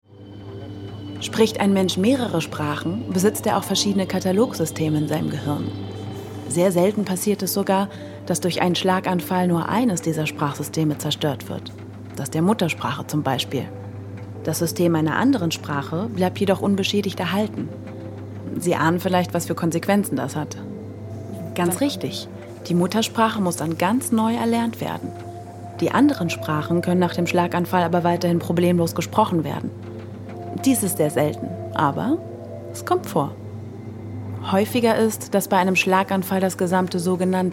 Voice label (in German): Erlaertext Hoerspiel; Die unsichtbare Dirigentin